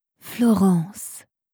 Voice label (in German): "florence", franzoesische maedchennamen